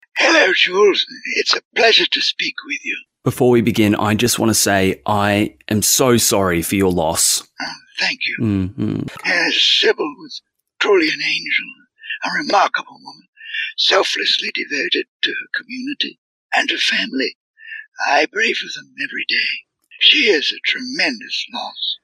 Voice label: Podcast telephone interview character samples aged 88; Vote 1; Mr Brill; Mayor